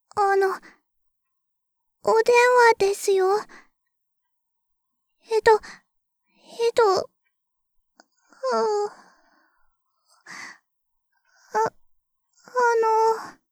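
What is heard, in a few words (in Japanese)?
電話着信, システムボイス; ベル・カールステッド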